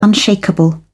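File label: "unshakeable", British English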